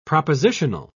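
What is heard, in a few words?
"propositional", British English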